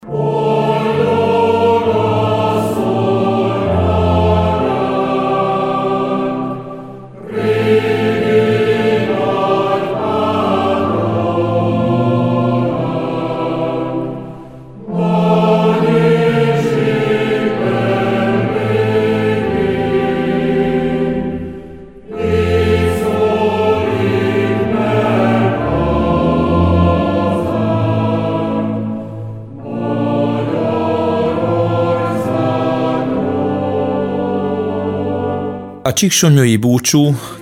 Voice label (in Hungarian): SPOT PUNKOSD; Punkosdi szpotok; Spot szombati miseig